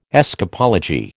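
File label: "escapology", American English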